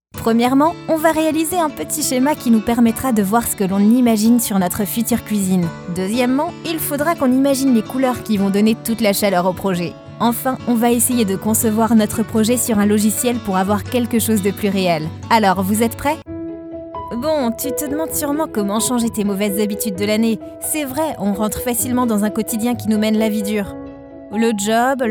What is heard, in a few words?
E-LEARNING VOIX OFF